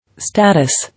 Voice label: "Status", English